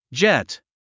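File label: "jet", English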